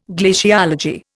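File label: "glacis", Indian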